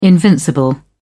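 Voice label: "invincible", British English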